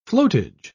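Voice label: "flotage", British English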